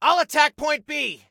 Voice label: ATTACK, Soldier Male